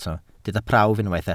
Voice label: "prawf", Welsh